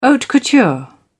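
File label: "haute couture", English, uk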